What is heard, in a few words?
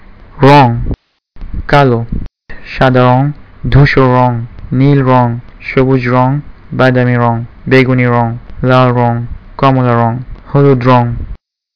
bengali; colors